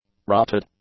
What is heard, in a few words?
"rutted", English; adjectives